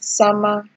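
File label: "sama", Nigerian Pidgin, female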